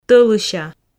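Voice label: "тӧлыся" Komi, female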